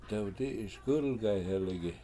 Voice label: "dewdI7iS g!lgayheligi" The Tree Might Break, Washo